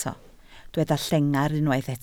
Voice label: "llengar", Welsh

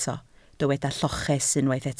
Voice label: "lloches", Welsh

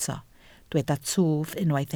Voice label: "twf", Welsh

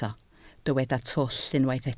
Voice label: "twll", Welsh

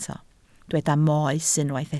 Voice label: "moes", Welsh

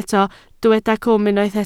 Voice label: "cwm", Welsh

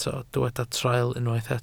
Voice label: "traul", Welsh

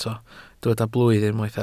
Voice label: "blwydd", welsh

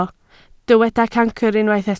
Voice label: "cancr", Welsh

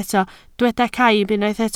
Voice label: "caib", Welsh

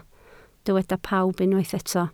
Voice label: "pawb", Welsh

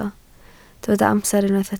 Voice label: "amser", Welsh

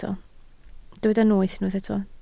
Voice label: "noeth", Welsh

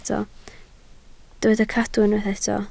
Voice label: "cadw", Welsh

